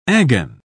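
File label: "agon", British English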